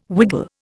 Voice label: "wiggle", Indian

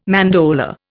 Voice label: "mandorla", Indian

